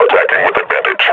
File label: announce attack, combine grunt